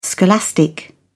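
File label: "scholastic", British English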